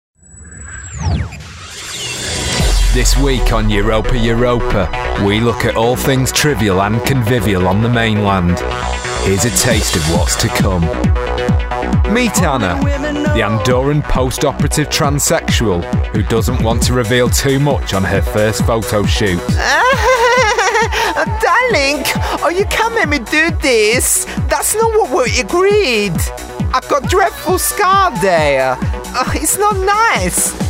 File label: Character Work Low Brow Fun